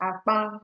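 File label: "akpan", Nigerian Pidgin, female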